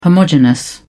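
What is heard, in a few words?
"homogenous", British English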